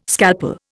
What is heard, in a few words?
"scalpel", Indian